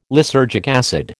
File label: "lysergic acid", American